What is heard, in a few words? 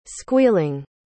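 "squealing", English pronunciation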